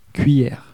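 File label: "cuillere", French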